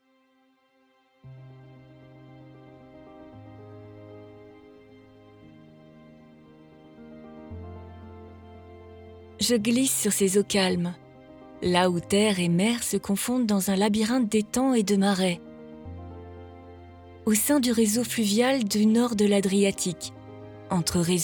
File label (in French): Voix off; Corte Cavanella Italie; Lyon